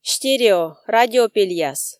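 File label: "стерео(радио)пельяс", Komi, female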